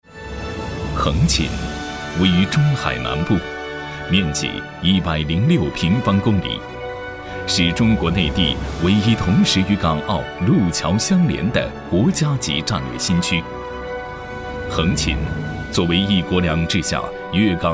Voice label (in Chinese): 男国 宣传片 招商 沉稳; 横琴新区招商片